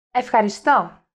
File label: Greek Thank You